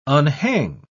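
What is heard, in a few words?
"unhang", British English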